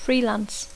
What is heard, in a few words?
"freelance", English